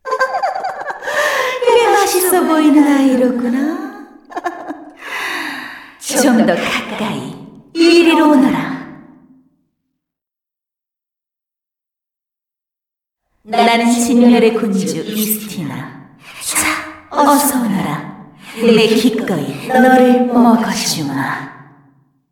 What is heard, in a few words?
cinematic voice; lineage2